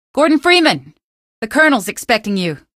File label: citizen greet, female; hl2; coast; odessa; Source engine